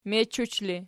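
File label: "mechuch", ergative, Archi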